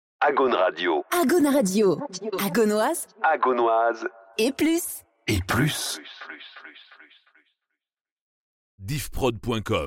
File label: Radio Drop; Agaune; Agaunoise et plus